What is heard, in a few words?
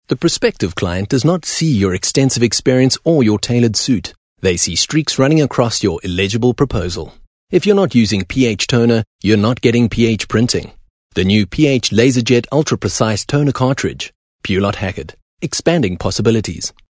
English Corporate